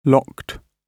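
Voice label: "locked", British English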